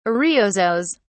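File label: "ariosos", British English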